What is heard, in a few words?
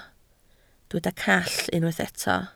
"call", Welsh